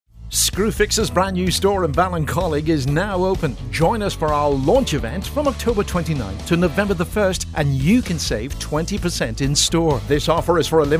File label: Commercials